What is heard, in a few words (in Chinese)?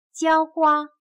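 "浇花", Chinese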